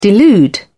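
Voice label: "delude", British English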